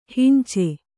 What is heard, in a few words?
"ಹಂಚು", Kannada